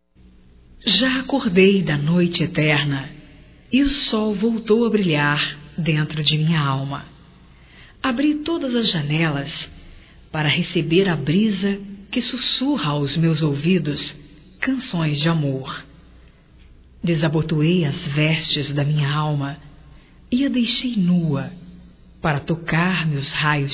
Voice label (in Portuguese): ROMANTICA PICANTE; Românticas